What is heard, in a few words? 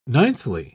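"ninthly", British English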